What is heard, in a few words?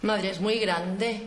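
VoiceActing Spanish, female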